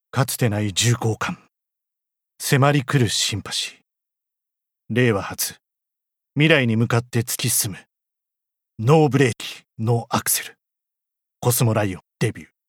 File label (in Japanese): イメージCM